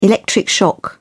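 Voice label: "electric shock", British English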